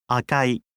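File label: "akai", Japanese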